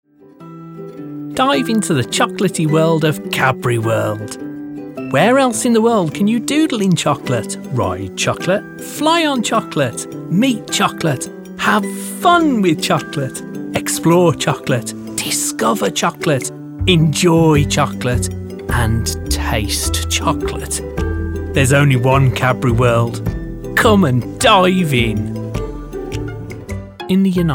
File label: COMMERCIAL